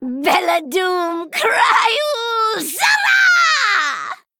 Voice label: Female Gnome

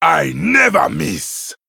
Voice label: Male Troll Attack

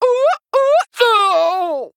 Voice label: Male Human Death

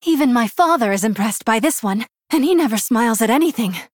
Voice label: Human Female; Hero; Jaina; Mission1 Exchange

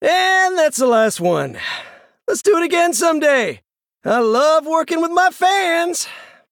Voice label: Male Human Bark

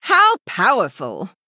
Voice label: Female High Elf Emote Recruit Large Minion

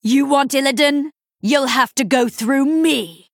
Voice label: Female Night Elf Start; Maiev

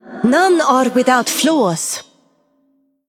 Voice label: female iron vrykul emote oops

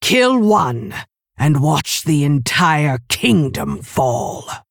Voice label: Female Orc Bark; Garona Halforcen

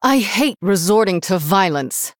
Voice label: Female Human Threaten

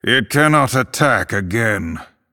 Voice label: Male Night Elf

